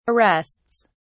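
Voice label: "arrests", British English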